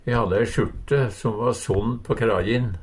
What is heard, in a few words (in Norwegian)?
"kraje", Numedalsmål; Substantiv hankjønn; Personleg utstyr, klede, sko